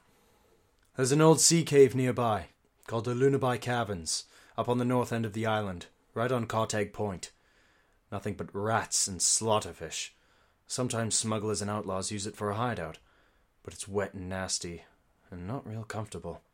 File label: breton